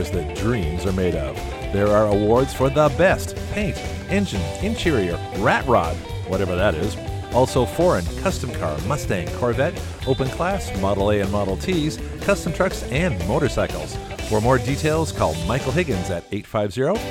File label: Car Show Ad; Rotary